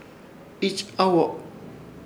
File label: "Ichawo", Ishir Chamacoco; picante